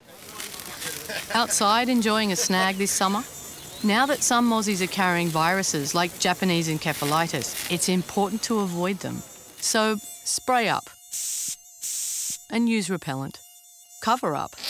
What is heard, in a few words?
radio; outdoors; mosquito-borne